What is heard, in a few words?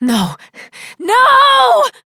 Operator Voice, en; Warframe; New War